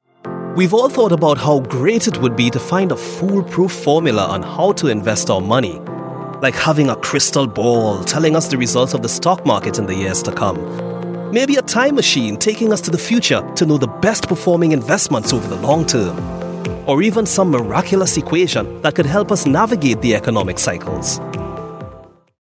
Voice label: trinidad tobago voice corporate narration

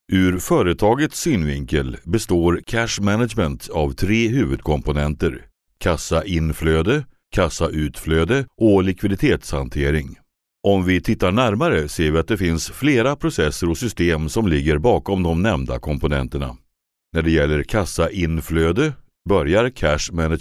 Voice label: swedish male e learning

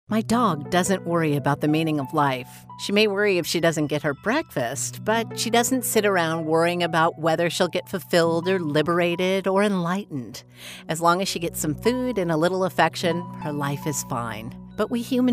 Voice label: american female audiobook non fiction

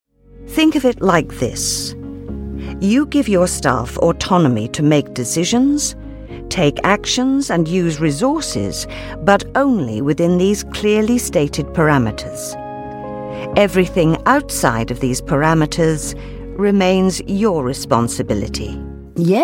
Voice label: corporate

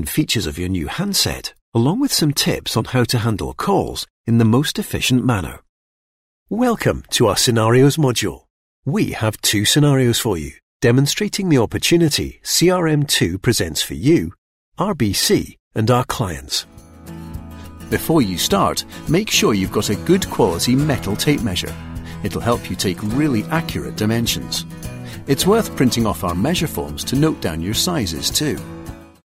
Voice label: e-learning and training

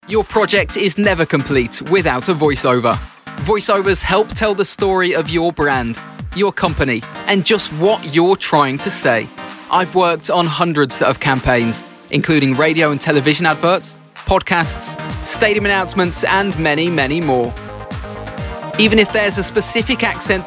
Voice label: young northern, montage

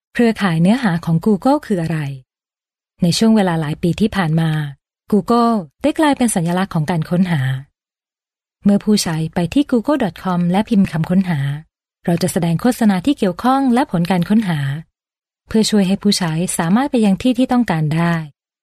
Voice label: corporate

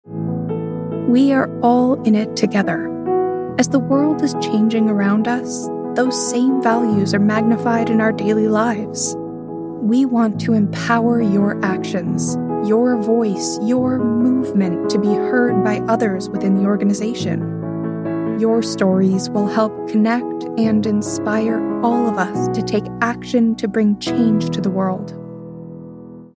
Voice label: natural

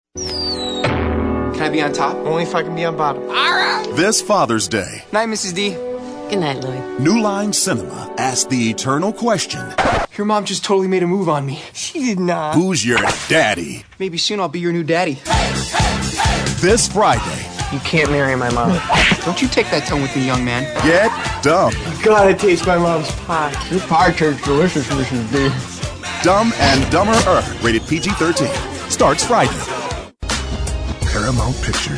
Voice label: american trailers

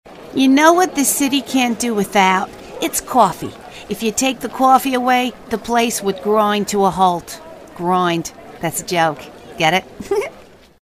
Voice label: new york accent

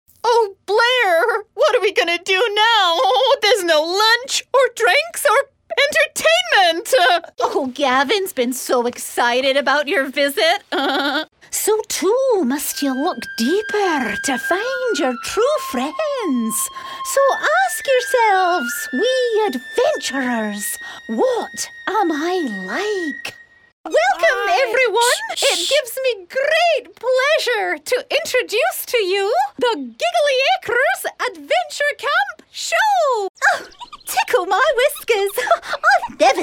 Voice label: animation

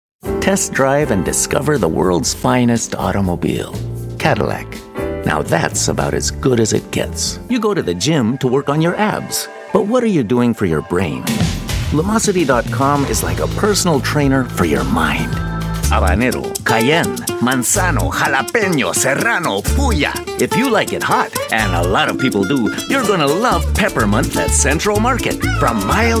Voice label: bilingual spanish english female

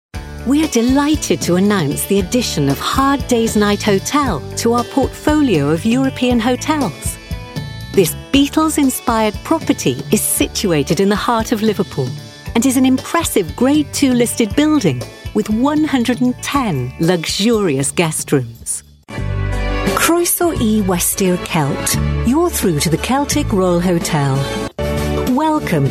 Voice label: on hold

